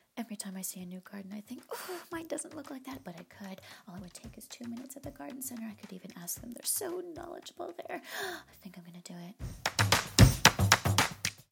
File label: Curious Consumer Commercial Garden Centre